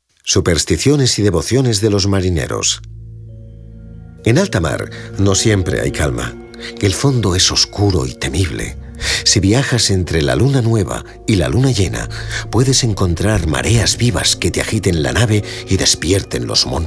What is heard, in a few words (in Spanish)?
audioguia esp